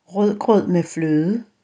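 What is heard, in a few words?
"rødgrød med fløde", Danish; Danish food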